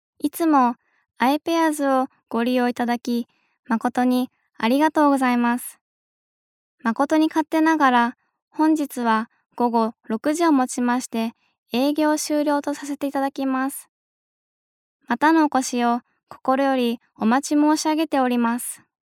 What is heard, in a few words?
jp normal